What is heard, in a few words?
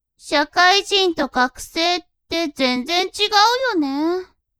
woman